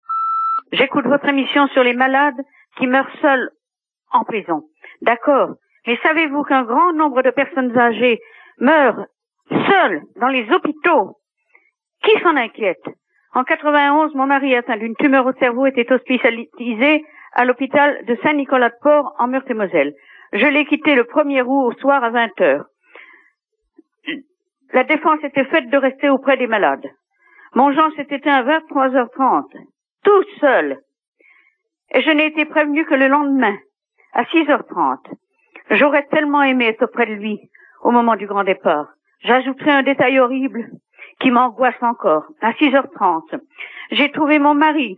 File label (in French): Mort a hopital repondeur